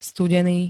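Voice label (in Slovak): "studeny", Slovak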